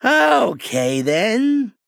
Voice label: Male Goblin Thinking